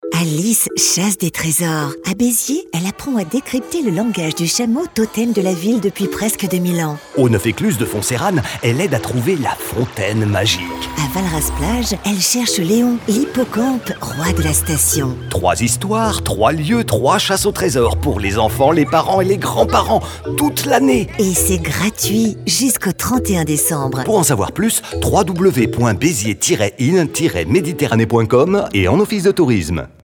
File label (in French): spot radio; beziers mediterranee; fb herault